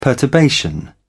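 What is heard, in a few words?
"perturbation", British English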